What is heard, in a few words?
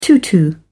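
"tutu", English, British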